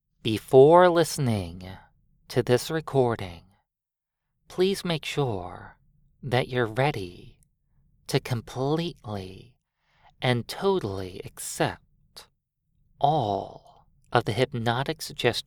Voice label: Plain Voc

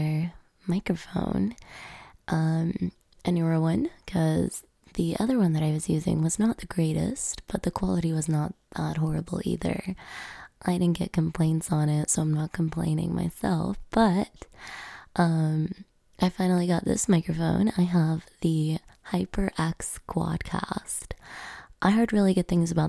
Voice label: new mic testing

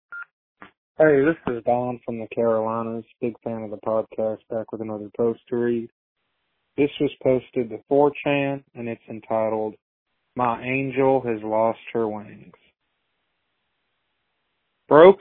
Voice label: Voicemail

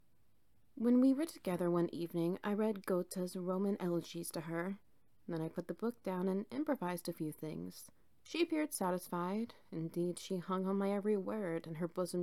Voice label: NO AMBIENCE

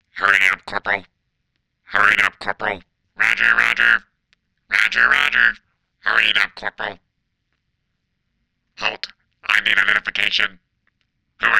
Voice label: Battle Droid

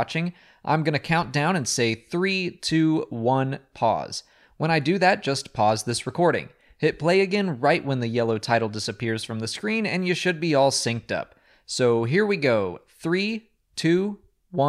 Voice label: Audio Commentary; Landing at Point Rain

